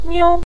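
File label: "niu", Mandarin Chinese neutral tone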